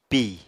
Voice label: "pì", Apatani